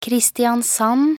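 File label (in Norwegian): "Kristiansand", Norwegian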